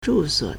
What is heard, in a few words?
"zhu4 suo3", Chinese